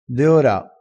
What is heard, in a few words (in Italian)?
"deora", dialettale